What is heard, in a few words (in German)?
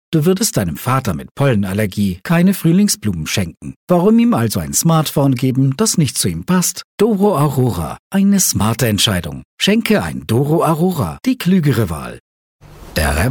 German Commercial